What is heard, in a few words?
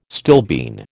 "stilbene", American